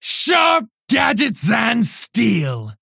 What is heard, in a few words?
Female Orc Play